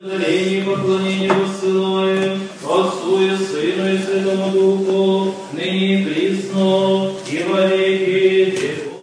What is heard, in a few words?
religious oration, preaching; Evangelists and Preaching and Religious